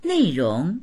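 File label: "nei4rong2", Mandarin Chinese; 内容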